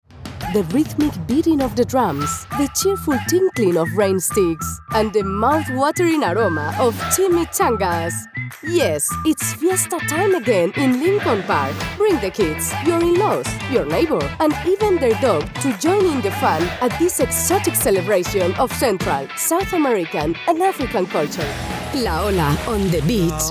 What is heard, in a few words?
spanish voice english radio